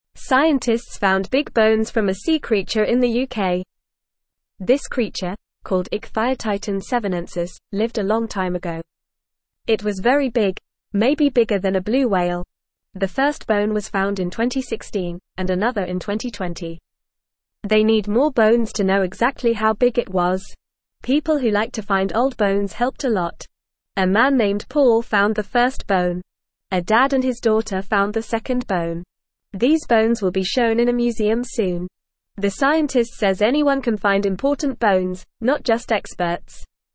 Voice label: English Newsroom Beginner FAST Reading; Giant Sea Creature Bones Found in UK